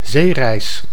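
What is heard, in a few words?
"zeereis", Dutch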